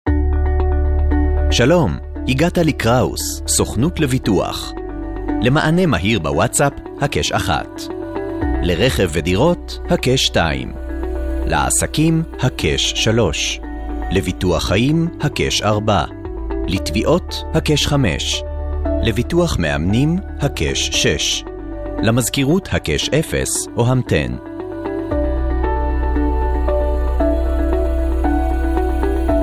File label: hebrew ivr